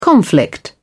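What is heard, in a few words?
"conflict", British English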